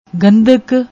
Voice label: "gandhk", Punjabi

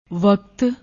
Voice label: "waqt", Punjabi; ਵਕਤ

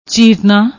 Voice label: "cheerna", Punjabi; ਚੀਰਨਾ; چیرنا; verb, transitive

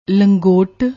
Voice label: "langot", Punjabi

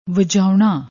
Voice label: "vajouna", Punjabi; ਵਜਾਉਣਾ

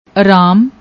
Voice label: "aram", Punjabi; ਅਰਾਮ

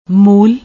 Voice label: "mool", Punjabi

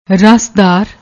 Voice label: "rasdar", Punjabi

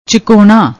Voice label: "chakona", Punjabi; ਚਕੋਣਾ

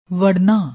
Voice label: "varna", Punjabi; ਵੜਨਾ

